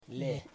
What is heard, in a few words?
"water", Kamba